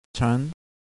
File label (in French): "fan", ton montant, thailandaise